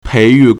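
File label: "pei2yu4", Mandarin Chinese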